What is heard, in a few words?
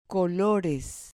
"colores", Spanish